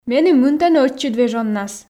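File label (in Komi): меным мынтӧны ӧтчыд вежоннас, Komi, female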